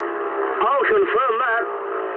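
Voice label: English player killed enemy mood high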